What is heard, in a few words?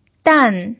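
"dan" fourth tone, Mandarin Chinese pinyin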